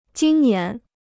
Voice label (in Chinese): "今年", Chinese